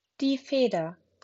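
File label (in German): "Feder", German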